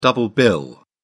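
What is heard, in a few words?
"double bill", British English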